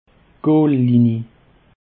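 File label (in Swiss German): "goollinie", Alsatian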